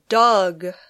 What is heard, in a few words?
"dug", English